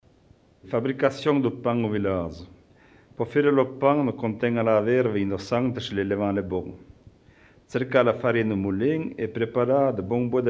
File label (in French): patois de Chandolin Anniviers; tradition; patois valaisans; La fabrication du pain au village